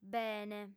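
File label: "bene", female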